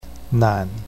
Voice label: "nan3", Chinese pinyin